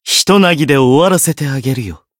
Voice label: Voice jp